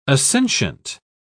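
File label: "assentient", British English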